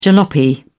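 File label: "jalopy", British English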